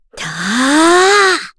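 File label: Vox Casting, Korean; FreyB; kingsraid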